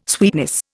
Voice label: "sweetness", Indian